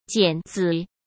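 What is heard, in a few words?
"剪子", Mandarin; chinese-flashcards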